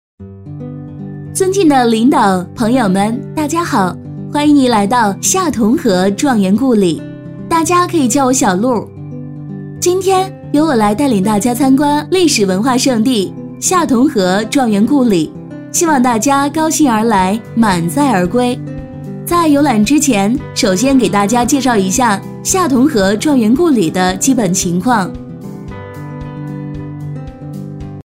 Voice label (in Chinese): 女 解说 状元故里; 夏同龢